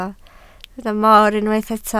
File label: "moor", Welsh